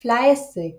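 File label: "fleißig", German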